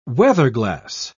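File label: "weatherglass", British English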